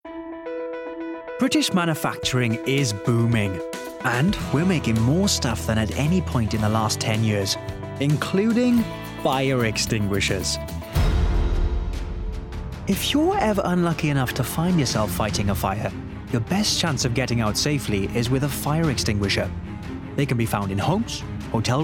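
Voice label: documentary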